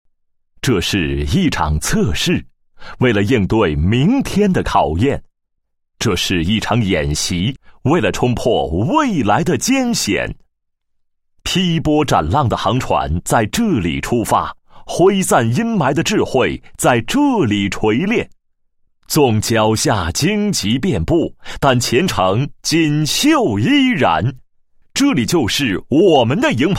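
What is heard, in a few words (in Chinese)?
男-企业-银行营业部, 配音案例; 中国银行常州分行营业部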